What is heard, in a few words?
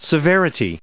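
"severe", US English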